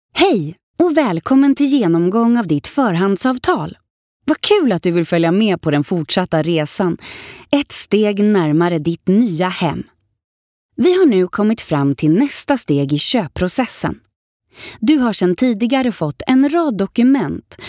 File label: swedish corporate videos